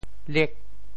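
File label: "liek8", Teochew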